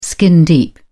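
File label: "skin deep", British English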